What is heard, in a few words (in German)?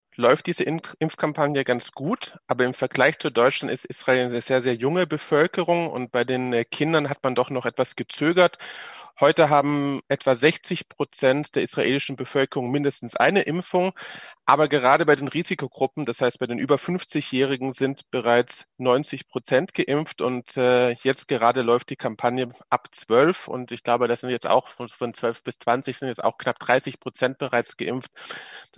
radio; Israel Oeffnung fuer Touristen verschoben; radio SAW